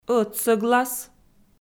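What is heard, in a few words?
"ӧтсӧглас" Komi, female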